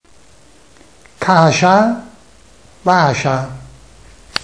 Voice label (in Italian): s sonora